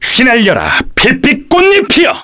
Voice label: Vox Skill, kr; Fluss; kingsraid

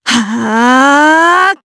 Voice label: Casting, jp; Ripine; kingsraid

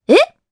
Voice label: Vox, jp; Requina; kingsraid

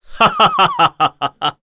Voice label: Happy; Roman; kings raid; en

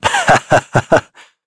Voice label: Shakmeh Vox Human Happy, voices heroes en; kingsraid-audio

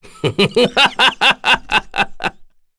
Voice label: Happy, kr; voices; heroes; Kain; kingsraid

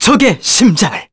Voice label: Vox Skill, kr; Arch; kingsraid

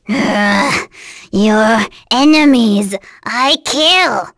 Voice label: Nia vox get, voices heroes en; kingsraid-audio

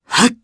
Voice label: Casting, jp; Fluss; kingsraid

